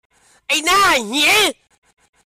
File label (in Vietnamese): tieng chui